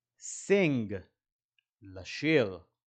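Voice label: "sing" English, "לשיר" Hebrew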